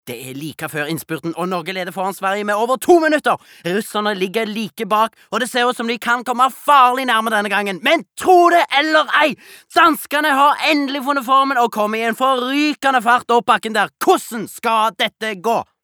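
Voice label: dub commentator, Norwegian, male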